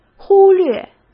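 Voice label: "忽略", Mandarin Chinese; hsk; chinese-flashcards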